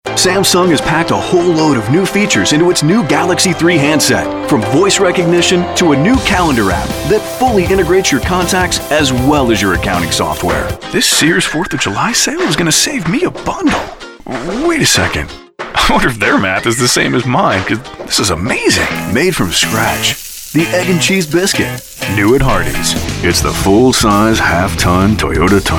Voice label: Commercial